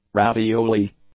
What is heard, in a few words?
"ravioli", English; nouns